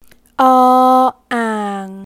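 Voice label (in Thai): "อ", Thai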